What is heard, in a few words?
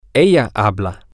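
male, spanish; this Is She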